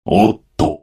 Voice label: desperation power; paradox bondrewd voice pack; chrono